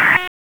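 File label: Police Spec Ops Tac, radio; Raleigh; Tac 4; wake; p25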